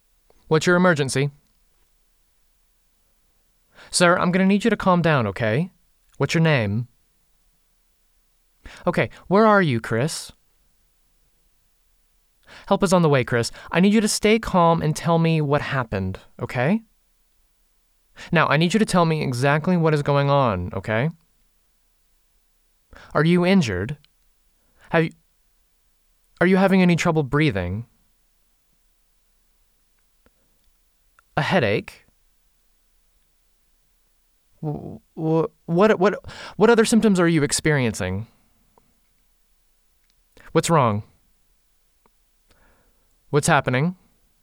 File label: 911 Call